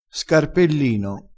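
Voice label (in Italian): "scarpellino", dialettale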